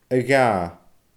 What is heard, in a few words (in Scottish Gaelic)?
"a dhaa", Scottish Gaelic